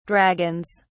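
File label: "dragons", British English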